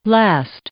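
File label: "last", English; verb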